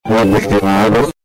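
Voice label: "chiave", Italian; chiave, ch'è raro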